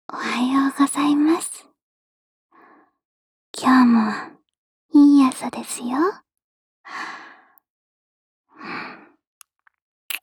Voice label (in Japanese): スマホ用目覚ましボイス; SE有り